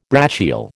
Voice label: "brachial", American